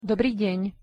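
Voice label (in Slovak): "dobrý deň", Slovak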